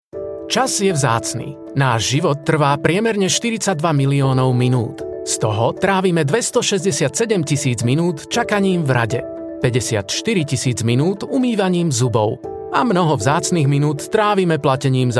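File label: Slovak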